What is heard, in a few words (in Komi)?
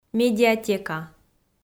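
"медиатека", Komi, female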